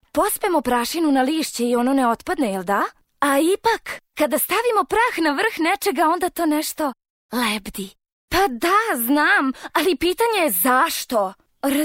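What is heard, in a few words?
serbian female character; voice demos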